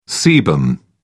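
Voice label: "sebum", British English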